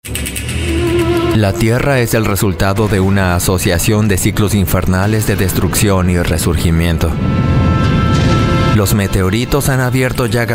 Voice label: spanish voice over male documentary

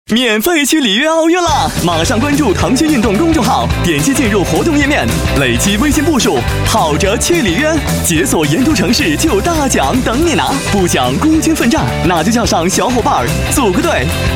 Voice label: chinese voice over male commercial

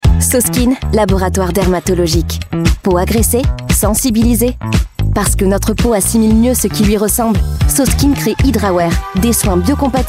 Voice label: french voice over female commercial

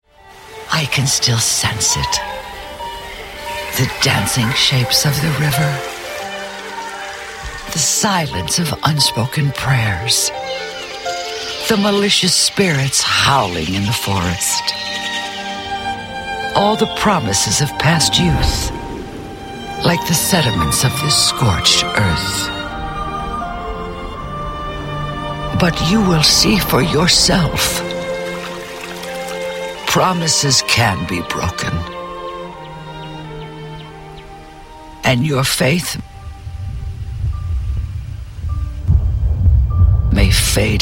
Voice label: english british voice over female narrator

